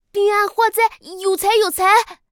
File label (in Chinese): 卖艺小孩